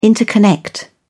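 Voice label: "interconnect", English, British English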